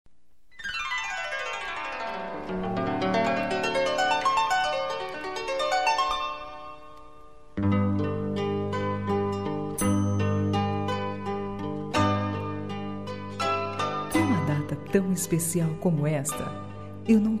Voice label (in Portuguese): Feliz Natal e Ano Novo Romantico, F